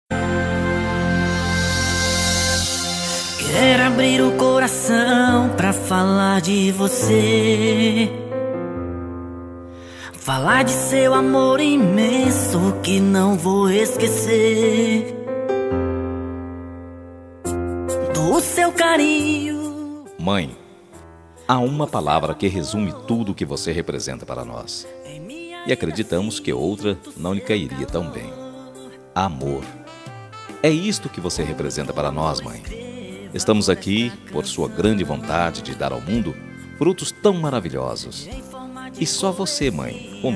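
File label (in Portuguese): birthday MAE male, telemensagem; aniversario mae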